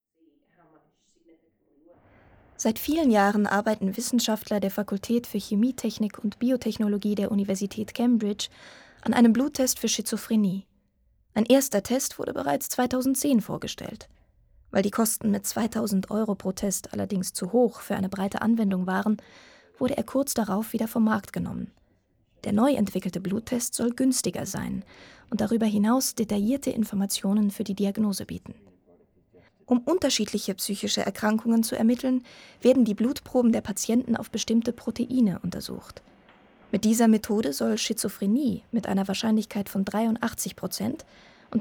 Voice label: OV Reportage

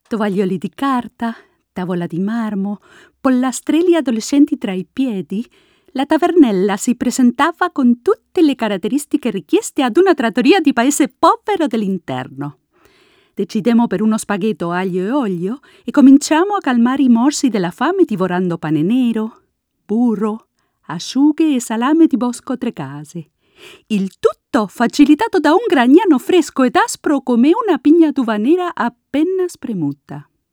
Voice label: Ital Hoerbuch; Hörbuch; Italienisch

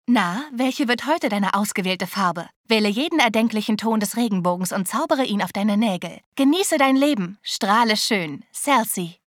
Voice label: Werbung

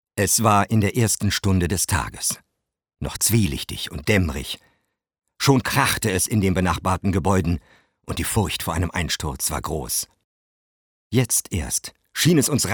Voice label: deutsch klassisch

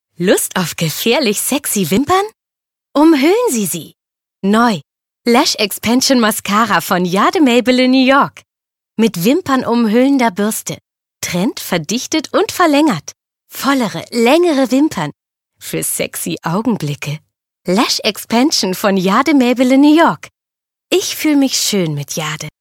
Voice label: Werbung Mascara

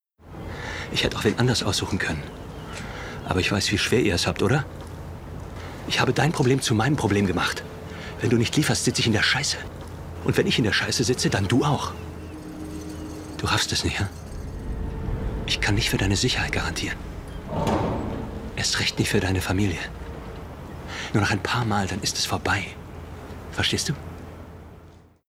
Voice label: Synchron; Fenix

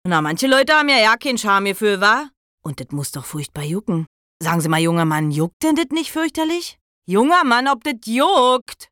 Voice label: Berliner Dialekt

